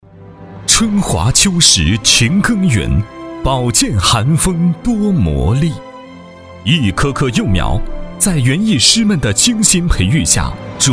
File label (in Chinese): 大气 人物专题